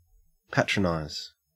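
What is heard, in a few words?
"patronize", Australian English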